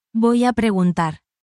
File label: spanish, spanish phrases